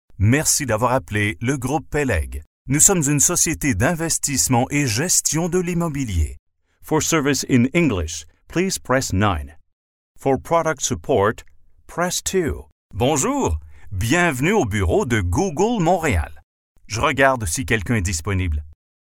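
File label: IVR FR ENG CA, French English Canada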